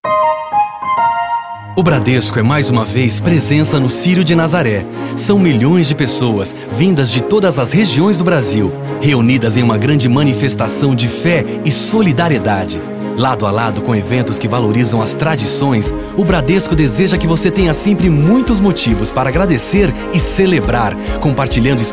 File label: Spot; Bradesco; Cirio De Nazare